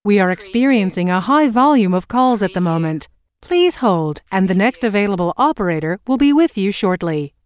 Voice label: US female phone prompt We are experiencing a high volume of calls at the moment - Please h; We are experiencing a high volume of calls at the moment - Please hold; lynne-publishing